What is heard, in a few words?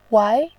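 "wai", Mandarin Chinese, tone 2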